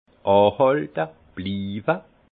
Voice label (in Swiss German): "anhalte", alsace; persister